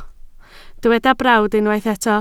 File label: "brawd", Welsh